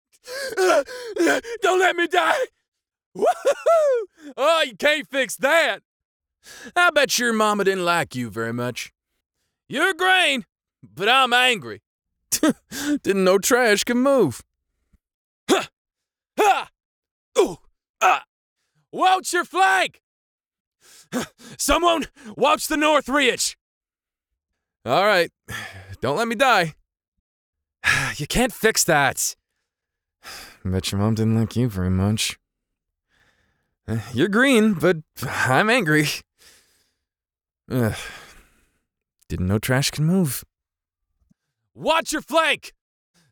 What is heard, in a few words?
Recruit Male